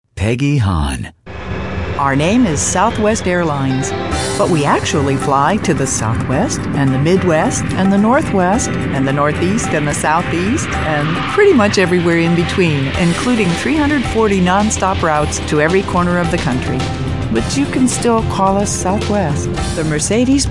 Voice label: female; Spotland Productions